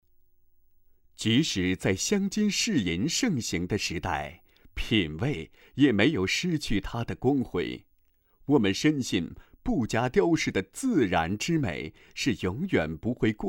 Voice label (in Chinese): 广告 配音